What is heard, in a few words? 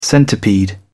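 "centipede", British English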